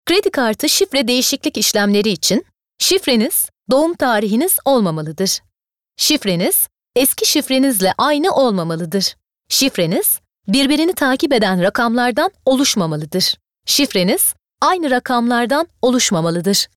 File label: IVR